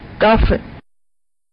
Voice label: dolphin eng; hk